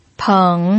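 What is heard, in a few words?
"peng", third tone, Mandarin Chinese pinyin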